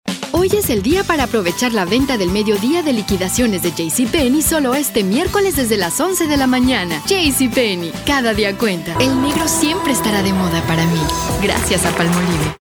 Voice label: mexican voiceover locutora mejicana; locutora nativa de Mexico